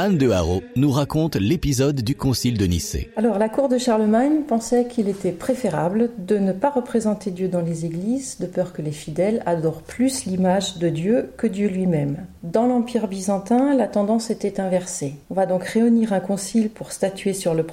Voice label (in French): audioguide oratoire carolingien de germigny